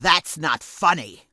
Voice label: Orc Female Standard Pissed

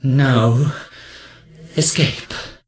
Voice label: Death Knight Male Low Pissed

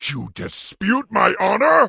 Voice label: Orc Male Guard NPC Pissed

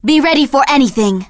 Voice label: Blood Elf Female Standard Farewell

